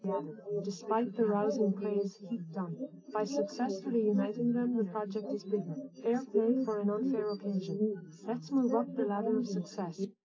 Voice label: female, 130ms, 20cm

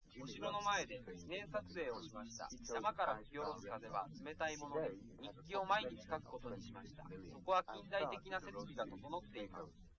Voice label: male, liverec, src

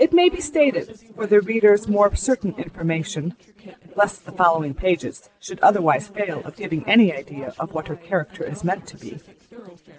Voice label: female; SASSEC07

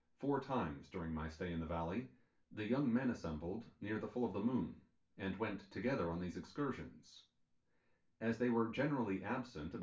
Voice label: male synth conv 5cm sim; SASSEC07

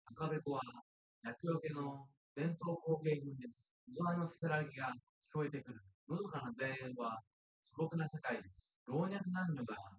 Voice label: male3 synthconv; SiSEC08; SiSEC underdetermined

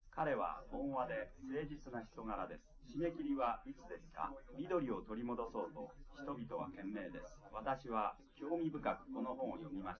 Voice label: male, live rec; SiSEC11; underdetermined

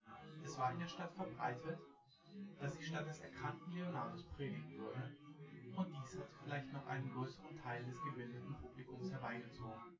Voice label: male, synth conv, 250ms, 1m; SiSEC10; underdetermined

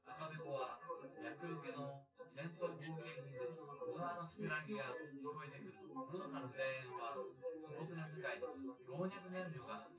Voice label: test male synthconv

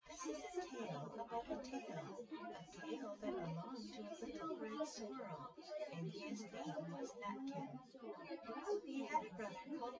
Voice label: female, synthconv, src; SiSEC08; SiSEC underdetermined

